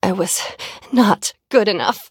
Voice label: defeat, en; cookie run

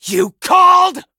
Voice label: touch, en; cookie run

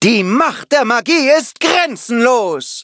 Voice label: victory, de; Cookie Run

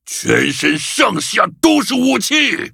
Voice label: upgrade, zh-hant

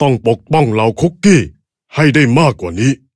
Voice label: level up, th; cookie run

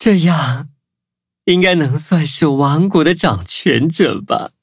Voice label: levelup, zh-hant; cookie run

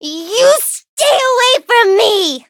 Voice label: defeat, en; Cookie Run

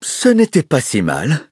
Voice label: victory, fr; cookie run

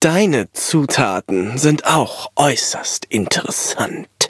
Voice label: levelup, de; Cookie Run

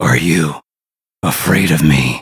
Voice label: menu idle eng; dark choco

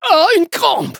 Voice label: hit fr

